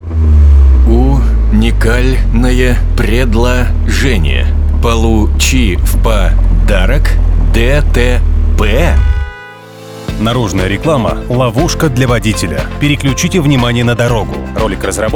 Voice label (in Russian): Наружная реклама